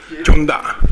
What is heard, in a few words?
"jonda", minamiyamagata